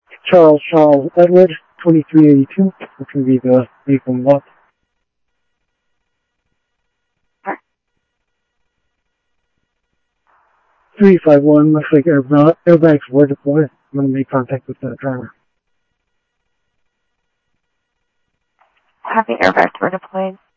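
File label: Sheriff West County, scanner audio trunk; MCSO - WEST; ThompsonPeakScot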